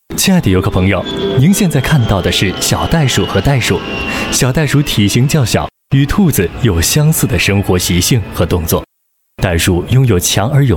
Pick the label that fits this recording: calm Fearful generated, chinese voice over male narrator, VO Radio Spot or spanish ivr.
chinese voice over male narrator